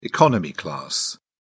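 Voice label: "economy class", British English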